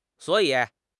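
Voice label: "suo3 yi3", Chinese; HSK; HSK2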